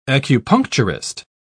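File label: "acupuncturist", English, British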